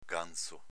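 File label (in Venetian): "ganzo", Veneto dialect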